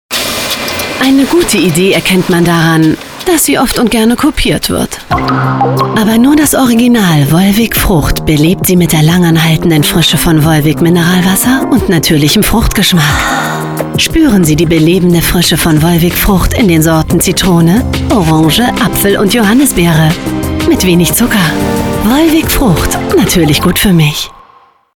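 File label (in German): off, sprecher; LOFT VOICES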